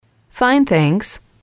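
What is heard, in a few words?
"fine thanks", English, female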